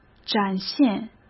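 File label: "展现", Mandarin Chinese; hsk; chinese-flashcards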